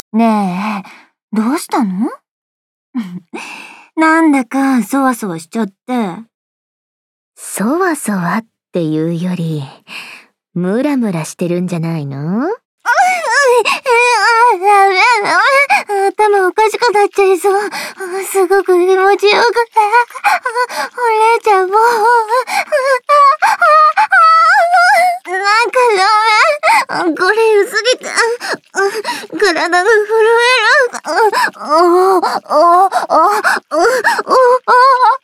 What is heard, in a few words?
voice drama